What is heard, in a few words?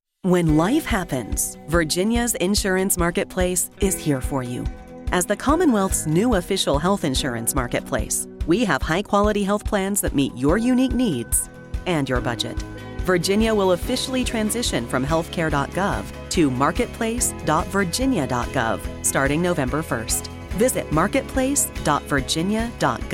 Radio PSA F